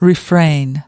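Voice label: "refrain" US English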